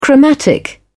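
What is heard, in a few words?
"chromatic", British English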